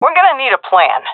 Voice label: request plan, seal fem; vo; Counter Strike Model Pack; CS:GO

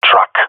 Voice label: "truck", male; vo; agents; Source Model Packs; Counter Strike Model Pack; CS2; jungle male epic

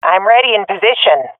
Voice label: in position, swat fem; vo; agents; Source Model Packs; Counter Strike Model Pack; CS2

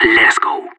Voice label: radio lock n load; Counter Strike; CS:GO; separatist

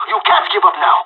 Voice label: pep talk, pirate; Counter Strike Model Pack; CS:GO

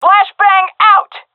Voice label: throwing flashbang, vo fem; agents; Source Model Packs; Counter Strike Model Pack; CS2

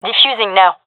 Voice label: defusing, fem; vo; gendarmerie fem epic

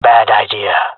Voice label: disagree, jungle male; agents

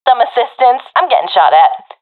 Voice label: request backup, professional fem; vo; Counter Strike Model Pack; CS:GO

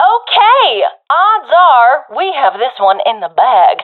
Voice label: round winning, professional fem; vo; Counter Strike Model Pack; CS:GO

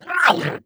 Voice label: ballbot pain; player; Portal 2

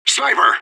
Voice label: radio bot under fire sniper; fbihrt

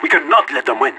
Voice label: pep talk; Counter Strike Model Pack; CS:GO; leet

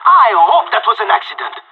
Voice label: killed friend, pirate; Counter Strike Model Pack; CS:GO

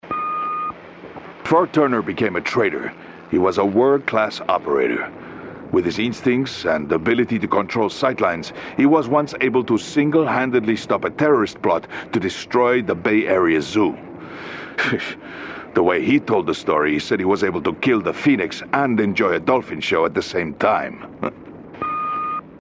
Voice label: radio; campaign; bloodhound

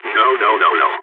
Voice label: negative, balkan; vo

